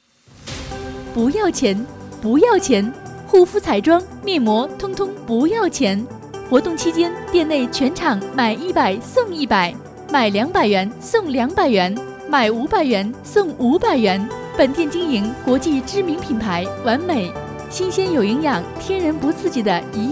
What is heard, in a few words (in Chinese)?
女26 护肤彩妆（年轻）